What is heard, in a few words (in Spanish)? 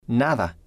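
"nada", spanish, male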